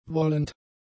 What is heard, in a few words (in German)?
"wollend", German; adjectives